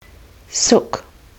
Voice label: "sook", kiwi english